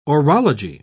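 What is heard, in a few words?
"orology", British English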